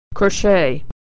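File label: "crochet", American English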